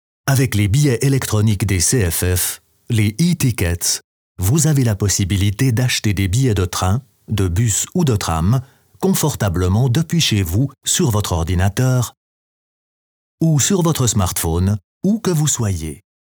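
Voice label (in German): OFF, French, Swiss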